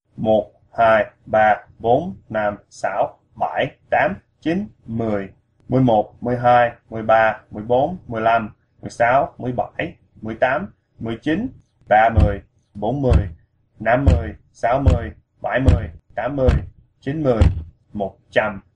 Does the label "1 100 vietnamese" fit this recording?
Yes